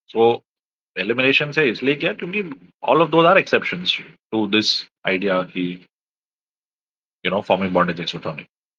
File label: voice message